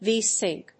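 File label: "vsync", English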